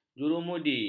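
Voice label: "jurumudi", Malay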